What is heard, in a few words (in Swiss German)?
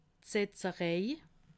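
"setzerei", Alsatian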